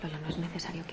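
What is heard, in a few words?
Voice Acting es Female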